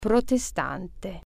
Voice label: protestant, italian